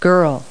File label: GIRL; Multi-Lingual Talking Picture Dictionary